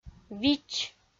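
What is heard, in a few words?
"вич", Komi, female; audio dict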